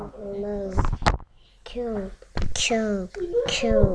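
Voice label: "cube", spoken word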